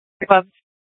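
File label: police dispatch radio, scanner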